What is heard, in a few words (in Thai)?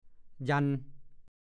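"ยัน" ภาษาโคราช; ถีบ ใช้ฝ่าเท้าดันไปโดยแรง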